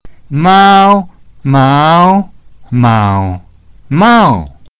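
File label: mao 4 tones, Mandarin; mao1 means a cat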